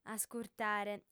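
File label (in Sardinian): "ascurtare", female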